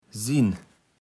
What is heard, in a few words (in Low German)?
"sin", Platt